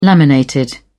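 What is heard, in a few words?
"laminated", British English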